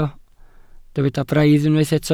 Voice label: "braidd", Welsh